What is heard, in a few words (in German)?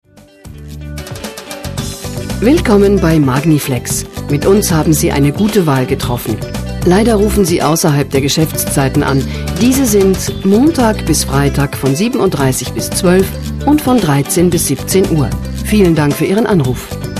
anrufbeantworter; magniflex